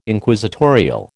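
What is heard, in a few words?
"Inquisitorial", American English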